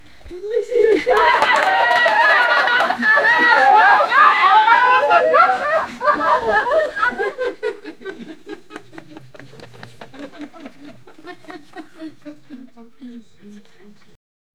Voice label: a group of friends